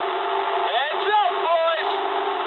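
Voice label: English near waypoint mood medium; speech; War Thunder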